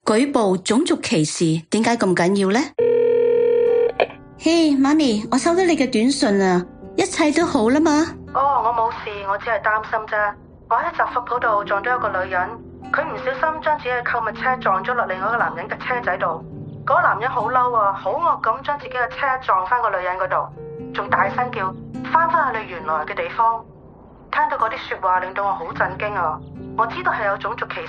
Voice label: Cantonese